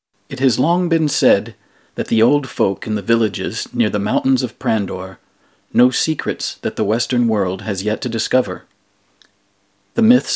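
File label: male English documentary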